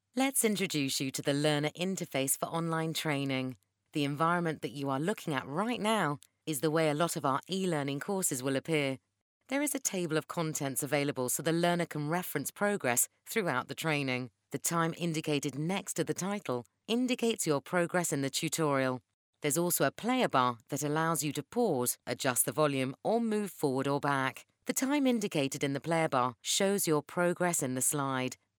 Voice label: english british training e-learning